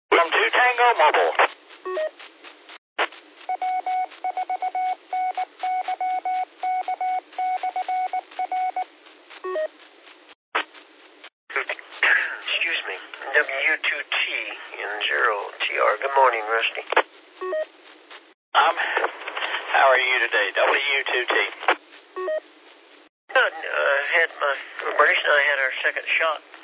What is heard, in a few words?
qso rec simplex logic